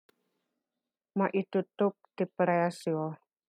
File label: Ilocano; reasonably priced - maitutup ti presyo